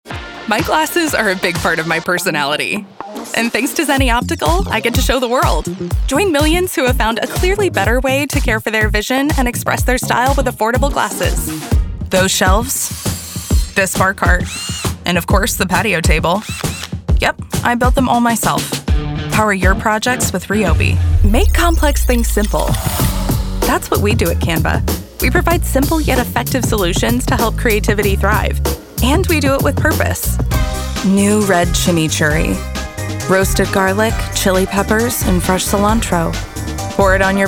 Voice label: Commercial